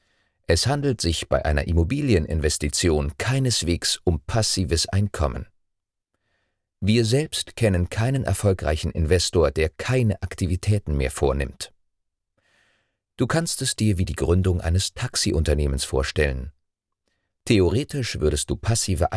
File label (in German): Hörbuch